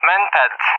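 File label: "MANPADS", English speech; Allied Flight; AirDefence; DCS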